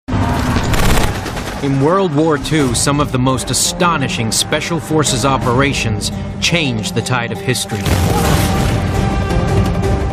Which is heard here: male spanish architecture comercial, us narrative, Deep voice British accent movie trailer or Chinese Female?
us narrative